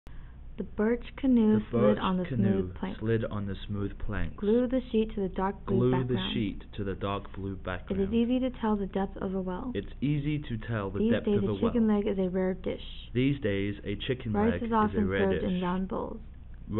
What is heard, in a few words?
Stereo speech